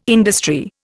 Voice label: "industry", Indian

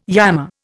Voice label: "yammer", Indian

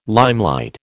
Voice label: "limelight", American

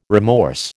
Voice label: "remorse", American

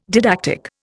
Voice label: "didactic", Indian

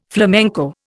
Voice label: "flamenco", Indian

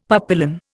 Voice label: "papillon", Indian; Dictionary

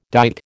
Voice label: "dight", American

